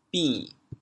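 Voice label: "bin3", Teochew, chaoyang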